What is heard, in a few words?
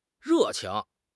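"re4 qing2", Mandarin Chinese; HSK3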